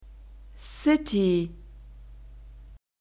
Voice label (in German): "City", German; alphabet